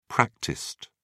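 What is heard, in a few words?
"practiced", English, British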